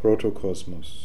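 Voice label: "Protocosmos", pronunciations; Fourth Way; Beelzebub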